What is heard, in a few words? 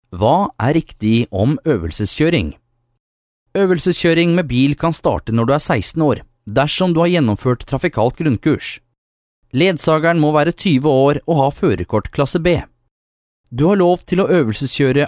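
no elearning, Norwegian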